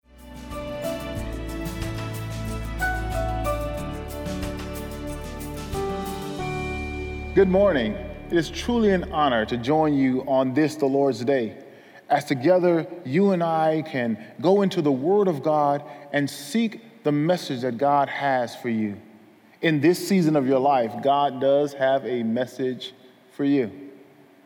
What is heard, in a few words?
ENG SERMON